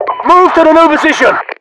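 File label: British new position; Voiceovers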